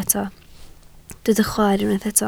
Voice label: "chwaer", Welsh